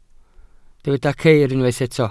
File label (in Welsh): "ceir", Welsh